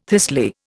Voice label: "thistly", Indian

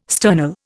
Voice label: "sternal", Indian

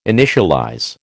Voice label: "initialize", American

